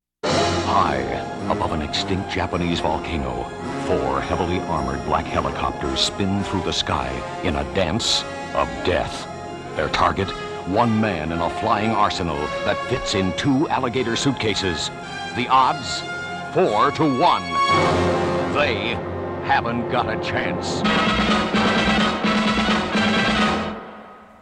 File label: Radio Commercials; James Bond 007